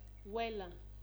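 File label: "wella", Nigerian Pidgin, female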